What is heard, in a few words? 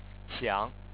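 "xiang3", Chinese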